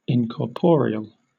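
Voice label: "incorporeal", British English; pronunciations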